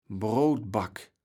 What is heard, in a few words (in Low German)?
"Broodback", platt